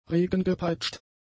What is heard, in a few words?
"regengepeitscht", German; adjectives